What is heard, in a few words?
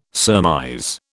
"surmise", British